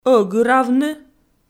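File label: "ӧгыравны", Komi, female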